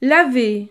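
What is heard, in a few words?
"laver", French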